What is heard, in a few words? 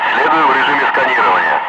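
Russian speech, Ka-50 Wingman; DCS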